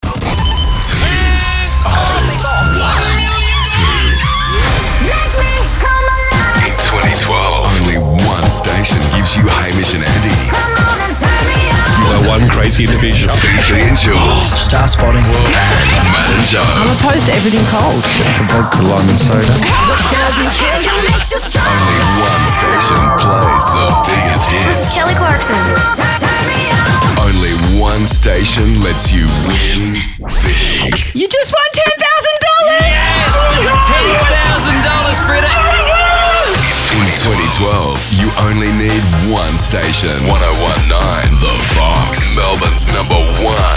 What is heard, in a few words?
lineup promo